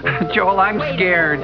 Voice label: scared